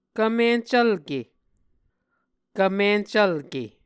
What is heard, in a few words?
"kvmincvlke", Muscogee